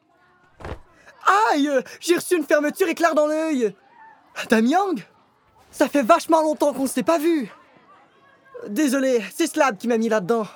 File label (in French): Doublage FR